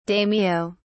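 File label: "daimyo", British English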